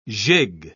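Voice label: "gigue", British English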